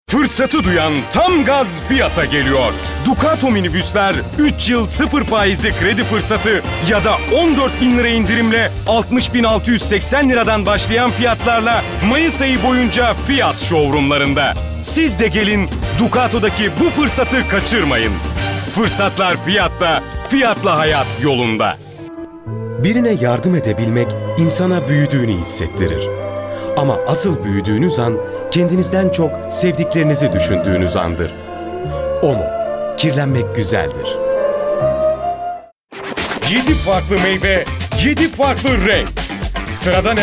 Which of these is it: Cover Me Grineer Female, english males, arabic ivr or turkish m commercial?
turkish m commercial